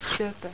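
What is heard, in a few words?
"sopo", Finnish pronunciation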